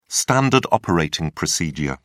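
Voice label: "standard operating procedure", British English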